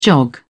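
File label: "jog", British English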